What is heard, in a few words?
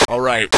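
"yes", English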